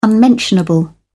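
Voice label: "unmentionable", British English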